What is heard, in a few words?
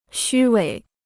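"虚伪", Chinese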